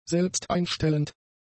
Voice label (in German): "selbsteinstellend", German; adjectives